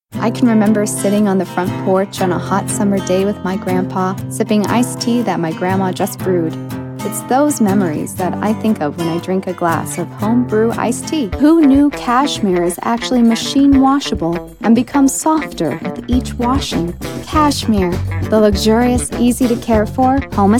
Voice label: Commercial Voice